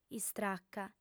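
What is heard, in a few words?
"istraca", female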